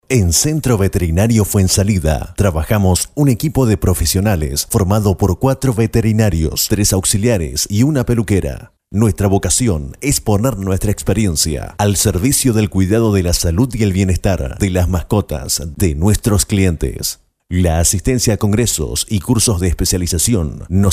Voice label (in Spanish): locutor argentino argentinian voiceover